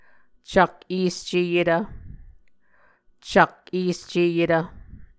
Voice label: "cak-esceyetv", muscogee; Mvskoke Words with Voicing Consonants; vcak-escēyetv; A Dictionary of Creek/Muskogee; SIL International; Onvkv Ohhvyayices